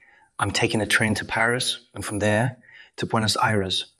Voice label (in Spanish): Voice Acting es M